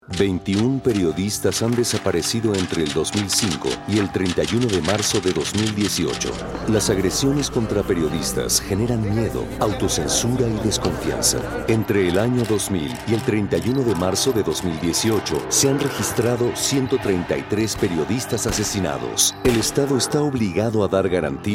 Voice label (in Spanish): Spot Radio